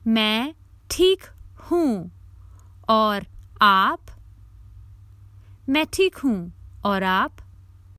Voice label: Common Hindi Phrases for travel Good thank you and you